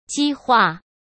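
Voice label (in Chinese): "激化", Mandarin Chinese; hsk; chinese-flashcards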